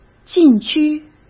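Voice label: "禁区", Mandarin Chinese; hsk; chinese-flashcards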